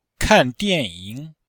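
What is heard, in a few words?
"kan4dian4ying3", Chinese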